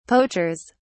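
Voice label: "poachers", British English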